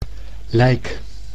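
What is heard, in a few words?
"lijk", Dutch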